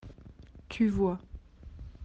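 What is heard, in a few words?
"voir", French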